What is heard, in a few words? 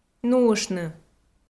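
"нуыштны", Komi, female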